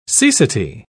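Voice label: "cecity", British English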